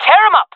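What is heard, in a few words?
attacking, jungle female; vo; agents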